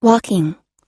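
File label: "walking", US English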